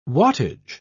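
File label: "wattage", British English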